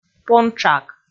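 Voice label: "понтшак", Komi, female; kpv audio dict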